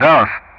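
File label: "Dallas", English; Ka-50; Callsign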